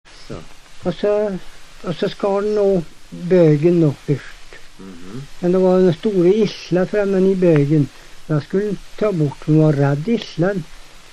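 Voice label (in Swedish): Hede Härjedalen, dialekter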